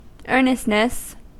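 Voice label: "earnestness", US English; pronunciation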